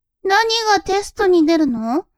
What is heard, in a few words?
woman